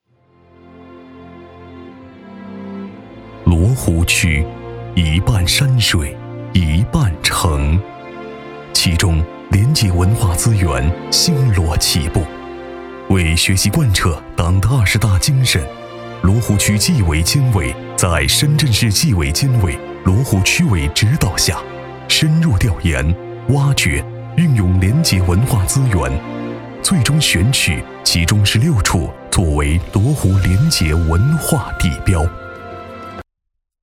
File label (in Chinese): 城市专题 磁性低沉; 罗湖区